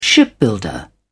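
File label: "shipbuilder", British English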